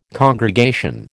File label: "congregation", American